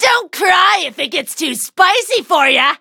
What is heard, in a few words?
battle, en; Cookie Run